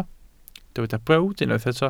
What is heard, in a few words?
"brawd", Welsh